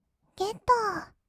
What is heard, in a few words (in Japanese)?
"ゲットー", kawaii voice; ASMR; 色々なワンショット